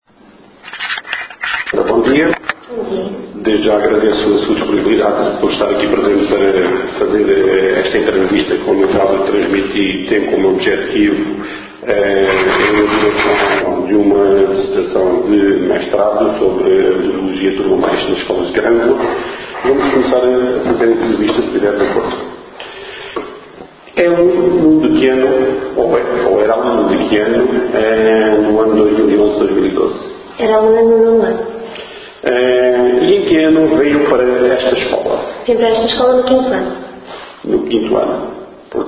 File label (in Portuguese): Entrevistado